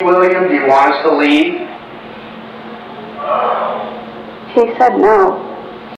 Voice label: Male Voice No